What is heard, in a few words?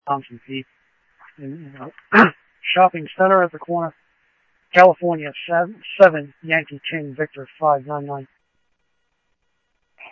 police, scanner audio